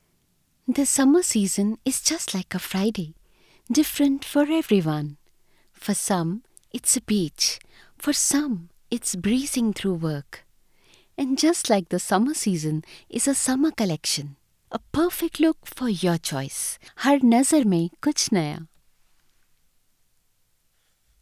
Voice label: english indian others